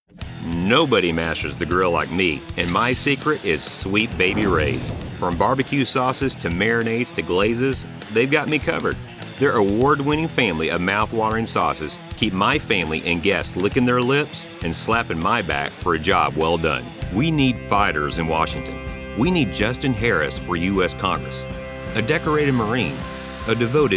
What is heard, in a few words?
COMMERCIAL VO, Authentic Texan Voice Old West Deep Resonanc